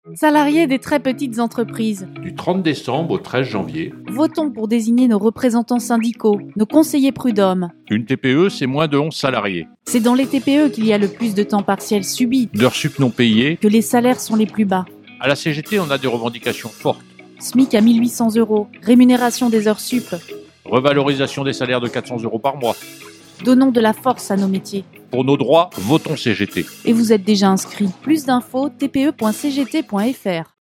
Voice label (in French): spot radio